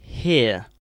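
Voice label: "hear", British English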